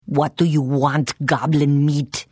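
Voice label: Hello, Khajiit female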